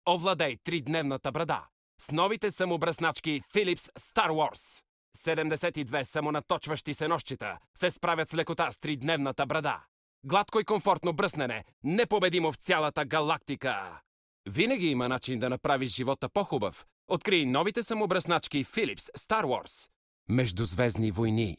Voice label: bulgarian m commercial; voice demos

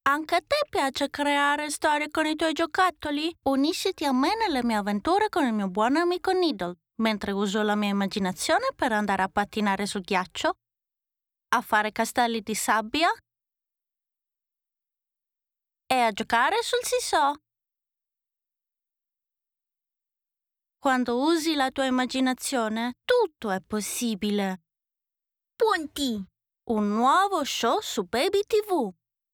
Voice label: italian f character; voice demos

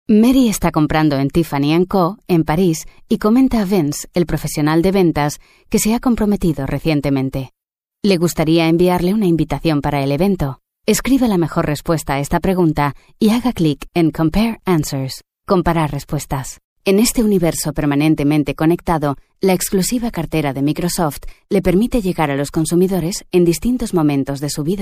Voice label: spanish f e-learning; voice demos

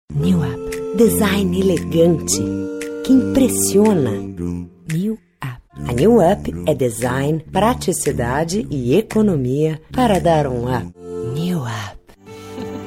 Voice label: brazilian f commercial, portuguese bra voice

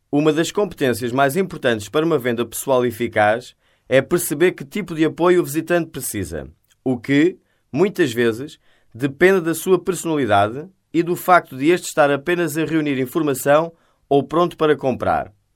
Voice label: portuguese m narration; voice demos

